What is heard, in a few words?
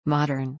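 "Modern", English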